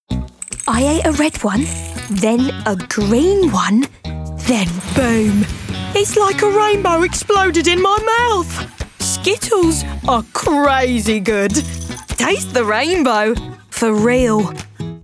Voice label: RP ('Received Pronunciation'), Commercial, Friendly, Energetic, Upbeat; Skittles